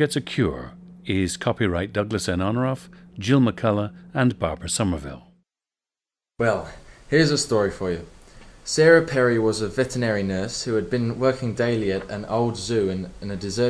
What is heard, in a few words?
New Zealand